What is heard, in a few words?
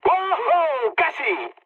bomb failure mood med; War Thunder